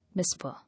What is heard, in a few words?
"Whisper", English